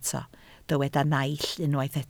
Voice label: "naill", Welsh

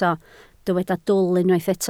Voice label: "dwl", Welsh

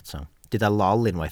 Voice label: "lol", Welsh

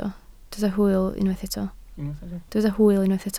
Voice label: "hwyl", Welsh

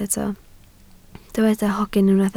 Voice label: "hogyn", Welsh

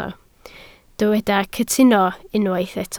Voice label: "cytuno", Welsh

